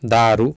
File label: "daaru", Sanskrit